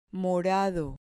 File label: "morado", Spanish